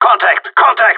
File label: Radio Taking fire